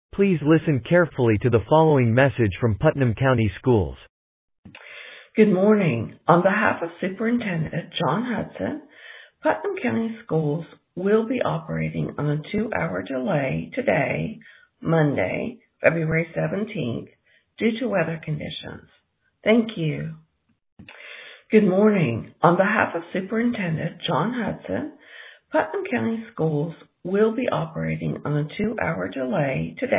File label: Voicemail